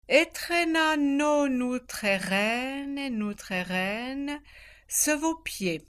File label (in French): prononciation patois vaudois